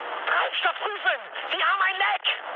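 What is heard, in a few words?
German tank damaged mood high, speech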